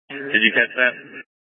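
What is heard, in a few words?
Incident Tactical, scanner audio recording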